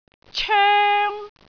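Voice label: "coeng1", Cantonese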